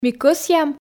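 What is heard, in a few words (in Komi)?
Komi, ми кӧсъям, female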